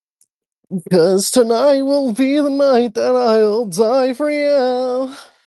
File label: voice message